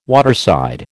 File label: "waterside", American